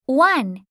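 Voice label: "one", English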